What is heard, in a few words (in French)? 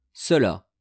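"cela", French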